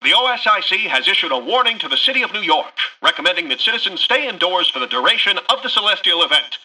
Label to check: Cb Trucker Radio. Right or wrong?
Wrong